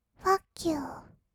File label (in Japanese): "ふぁっきゅー", kawaii voice; ASMR; 1200 kawaii voice!!; 音ゲー